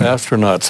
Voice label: LoFi Words, LoFi Vocals